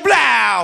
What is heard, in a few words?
"blaow", ooh ahh